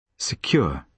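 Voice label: "secure", British English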